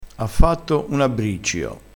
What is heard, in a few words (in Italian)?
"abbriccio affatto", Crespinese